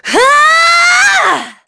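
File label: Vox Casting, English; Aselica; kingsraid; voices heroes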